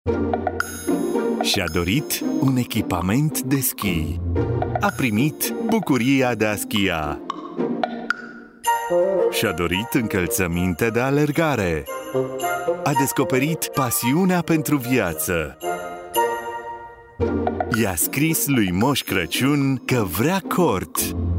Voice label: Romanian, male voice